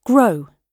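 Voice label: "grow", British English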